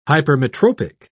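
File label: "hypermetropic", British English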